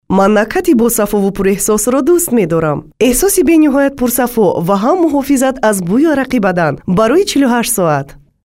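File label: Tajikistan female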